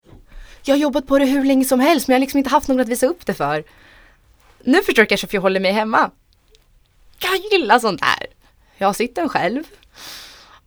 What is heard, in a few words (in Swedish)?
monolog